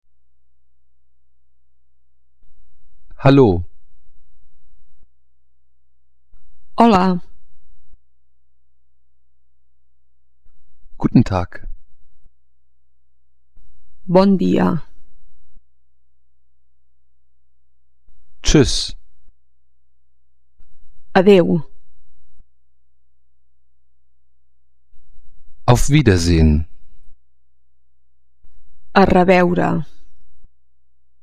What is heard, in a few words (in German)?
katalanisch